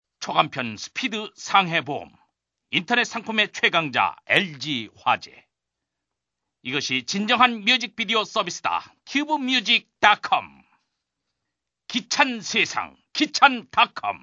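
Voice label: Korean male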